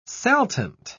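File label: "saltant", British English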